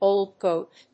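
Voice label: "old goat", English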